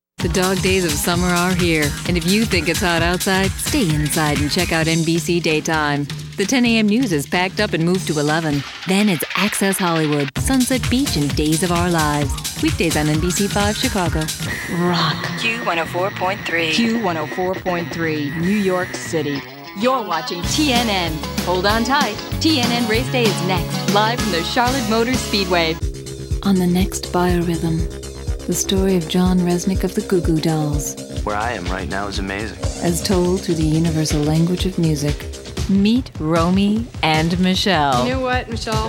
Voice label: promo